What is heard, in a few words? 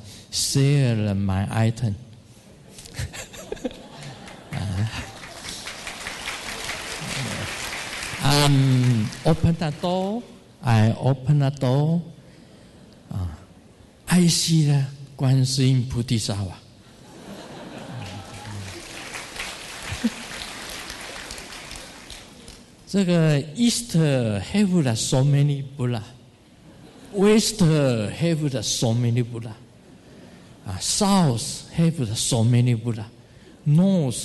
english business sutra